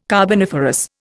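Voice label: "carboniferous", Indian English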